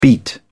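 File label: "beat down", British English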